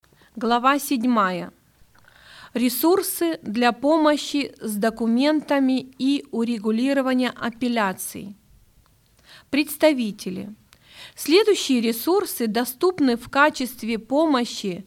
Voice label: Russian; Member Handbook